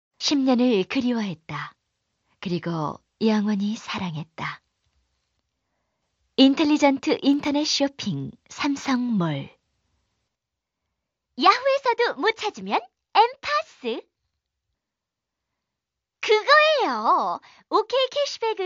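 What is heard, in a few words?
Korean female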